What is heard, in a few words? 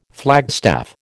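"flagstaff", American English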